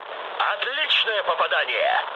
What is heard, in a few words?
bomb success, mood low, speech ru; War Thunder